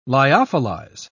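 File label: "lyophilize", English, British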